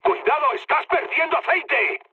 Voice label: damaged oil, mood high, speech; War Thunder